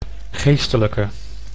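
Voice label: "geestelijke", Dutch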